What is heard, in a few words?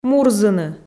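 "мурзыны", Komi, female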